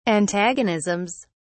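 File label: "antagonisms", British English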